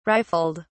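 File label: "rifled", British English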